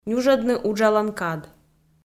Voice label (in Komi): "нюжӧдны уджалан кад", Komi